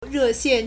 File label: "re4 xian4", Chinese